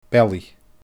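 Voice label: "bealaidh", Scottish Gaelic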